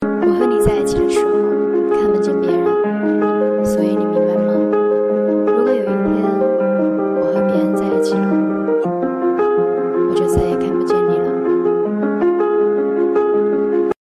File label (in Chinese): 配音